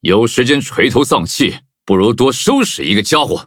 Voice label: loading, zh-hant

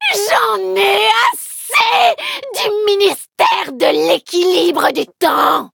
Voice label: loading, fr

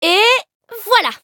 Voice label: victory, fr; cookierun

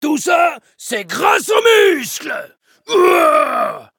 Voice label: victory, fr; cookie run

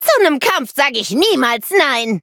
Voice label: battle, de; cookie run

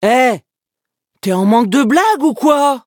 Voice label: defeat, fr; Cookie Run

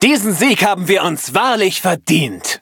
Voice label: victory, de; cookie run

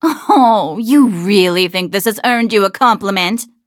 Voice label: levelup, en; cookierun

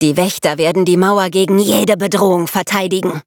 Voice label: level up, de; Cookie Run

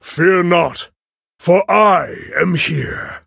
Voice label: levelup, en; Cookie Run

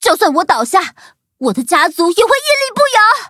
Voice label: defeat, zh-hant

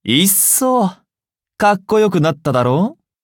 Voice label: levelup ja; cookierunwiki

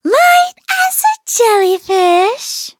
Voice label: victory, en; Cookie Run

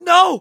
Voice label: hit, en; Cookie Run

